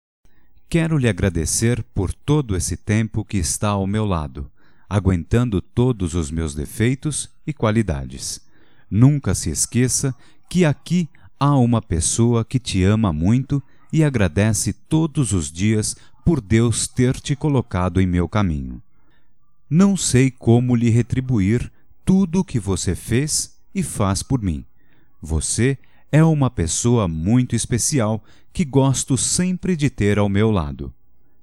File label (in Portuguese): Anivers casam ou namoro M, Só Voz, masc; Telemensagens; Telemensagens 2017